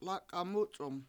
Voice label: "lAk$a7 MUc$1m", washo; ten

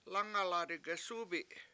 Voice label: lAngaladi7 gesUbi7 bring it from my house, Washo

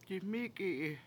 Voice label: "diMIki7i" I appear, Washo

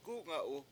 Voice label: "gU7nga7u7", Washo; where

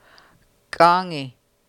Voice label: "k'AAngi" its roaring, Washo

